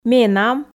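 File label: "менам", Komi, female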